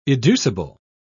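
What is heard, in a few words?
"educible", British English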